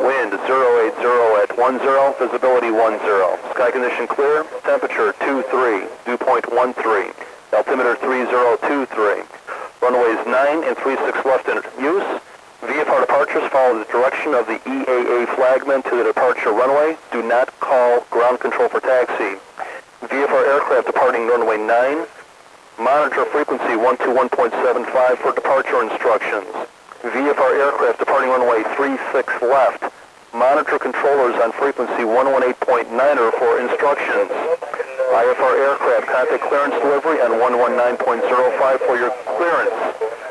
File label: Oshkosh ATIS; aviation; oshkosh 2001